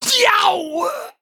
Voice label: Male Imp Death